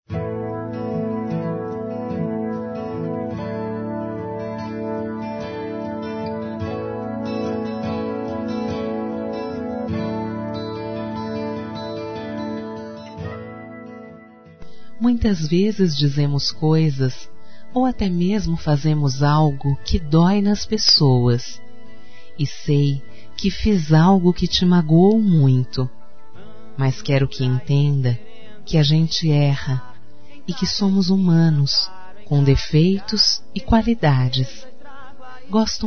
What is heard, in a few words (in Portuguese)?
reconciliaçao Feminino; Telemensagens; Dia Dos namorados; Telemensagens 2017